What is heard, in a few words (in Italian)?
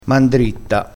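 "mandritta", dialettale crespinese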